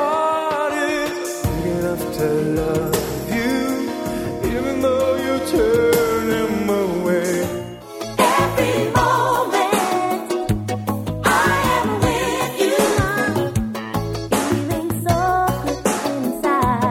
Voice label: Music Promo